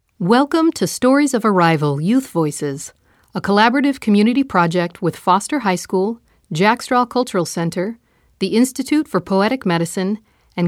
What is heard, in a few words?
Opening Narration